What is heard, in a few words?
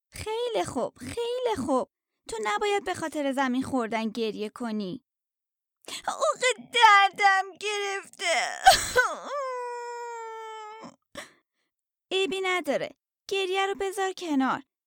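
Child Character Persian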